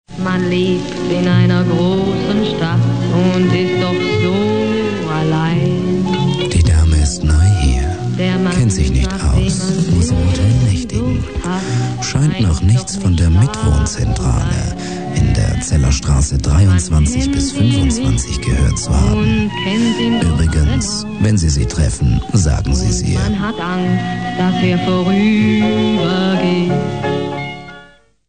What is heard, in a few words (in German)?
Werbung Mitwohnzentrale, radio